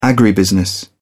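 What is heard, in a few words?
"agribusiness", British English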